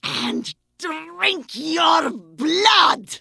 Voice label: Vrykul Female Warrior Pissed